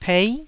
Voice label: "pei9", taiwanese